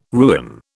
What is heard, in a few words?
"ruin", British